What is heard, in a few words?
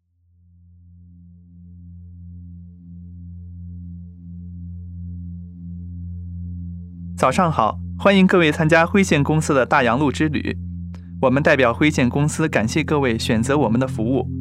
Mandarin; Grayline Melbourne